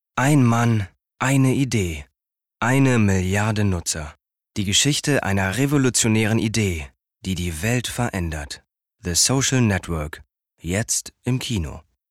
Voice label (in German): Kino Trailer Ansage; LOFT VOICES